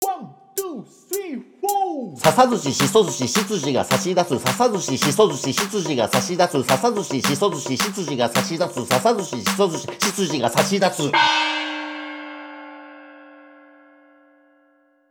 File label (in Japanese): 早口言葉リズム（中級編）